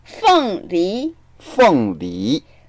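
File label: "feng4li2", Mandarin Chinese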